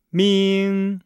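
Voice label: "ming", Chinese; Nihao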